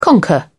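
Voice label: "conquer", British English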